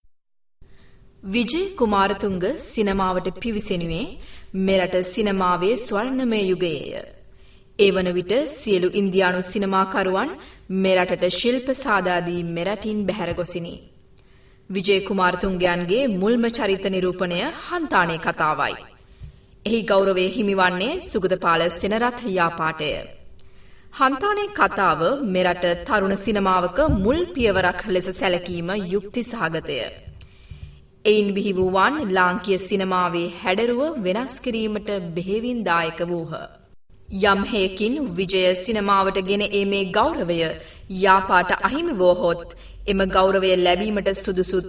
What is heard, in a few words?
docu sinhala